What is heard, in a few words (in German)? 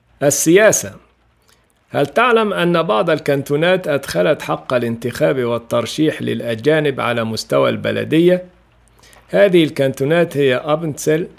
arab